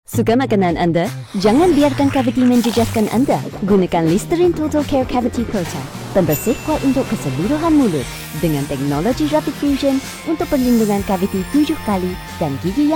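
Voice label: MALAY - COMMERCIAL Informative, Friendly, Cheerful; MALAY - COMMERCIAL (Listerine) Informative, Friendly, Cheerful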